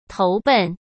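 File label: "投奔", Mandarin Chinese; hsk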